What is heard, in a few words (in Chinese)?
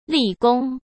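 "立功", Mandarin Chinese; chinese-flashcards; hsk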